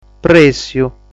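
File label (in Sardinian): "Prèssiu", Sardinian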